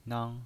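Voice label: "nang", pinyin voice, Mandarin Chinese, first tone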